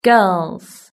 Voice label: "girls", british english

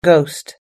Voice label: "ghost", us english

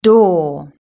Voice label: "door", british english

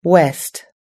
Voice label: "west", US English

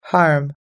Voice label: "harm", us english

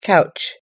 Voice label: "couch", us english

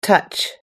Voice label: "touch", US English

